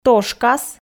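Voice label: "тошкас", Komi, female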